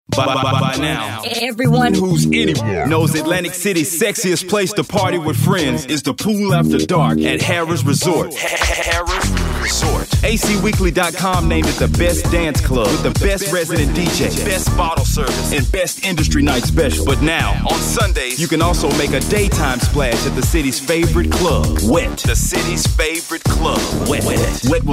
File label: High Energy